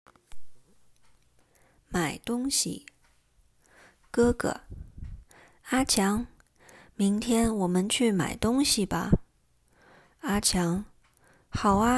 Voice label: conversation, mandarin; mandarin lesson materials